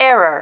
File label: "error", female